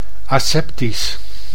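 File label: "aseptisch", Dutch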